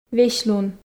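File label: "весьлун", Komi, female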